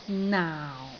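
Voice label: "naau4", Cantonese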